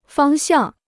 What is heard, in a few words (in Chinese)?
"方向", Chinese